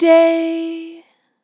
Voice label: "Long Day", Vocal Samples, Words

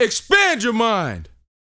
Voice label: TALK FF, Vocal Planet R&B Dance SCI-FI; 5 SCI-FI CHZ

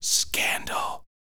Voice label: TALK, Vocal, SCI-FI; 5 SCI-FI CHZ

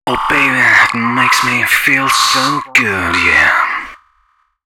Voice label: male d#130, Dance Ultra Voice hooklines

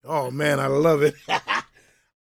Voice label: PREACHIN; Spectrasonics Vocal Planet; Jazz FX

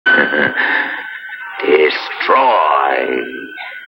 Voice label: Destroy, Spoken, Industrial

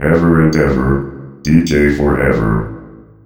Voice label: DJ 4 EVER, Funky Vocals; USB Soundscan vol.18; AKAI; COMPUTER

